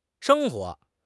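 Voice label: "sheng1 huo2", Chinese; HSK; HSK4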